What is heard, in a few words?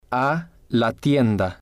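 "a la tienda", spanish, male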